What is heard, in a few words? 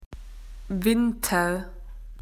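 "winter", Australian English; PictureDictionary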